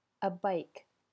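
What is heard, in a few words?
"a bike", English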